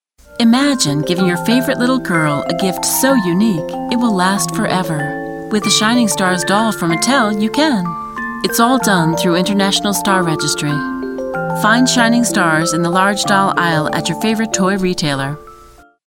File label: advertisement, female voice over; mattel